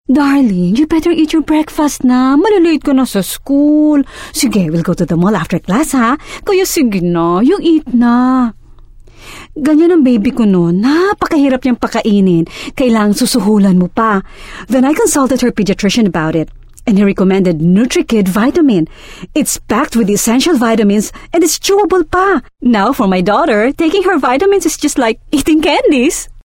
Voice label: Taglish, Motherly